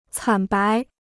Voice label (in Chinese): "惨白", Chinese